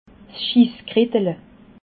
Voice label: "schisskritle", alsace

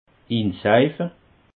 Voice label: "inseife", alsace

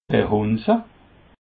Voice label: "verhunze", Alsace

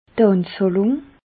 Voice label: "anzahlung", alsace

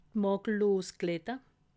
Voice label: "glatte", alsace

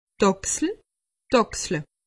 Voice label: "achsel", alsace; medecine

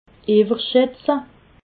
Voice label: "iwwerschatze", alsace

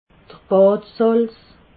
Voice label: "badsalz", alsace

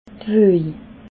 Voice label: "wog", alsace

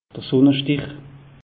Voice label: "sunne stich", alsace; meteo

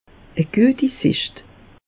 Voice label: "sicht", alsace; meteo

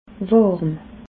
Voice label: "warm", alsace

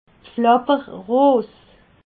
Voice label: "pflapperros", alsace

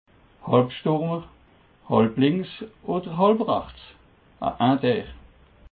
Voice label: "halbstuermer", alsace

